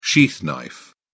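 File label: "sheath knife", British English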